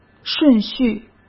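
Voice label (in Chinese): "顺序", Mandarin Chinese; hsk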